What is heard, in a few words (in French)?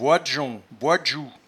"boidgeon", patois jura